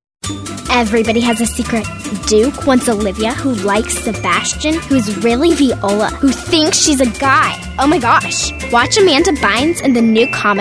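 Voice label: English Kid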